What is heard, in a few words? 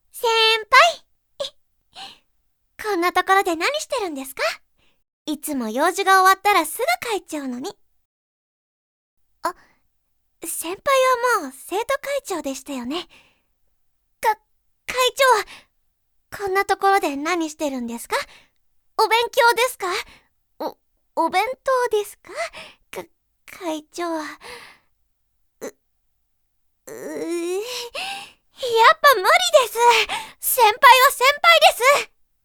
girl